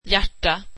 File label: swedish heart